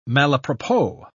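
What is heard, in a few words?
"malapropos", British English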